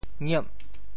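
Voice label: "ngiep8", Teochew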